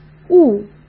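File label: "雾", Mandarin Chinese; chinese-flashcards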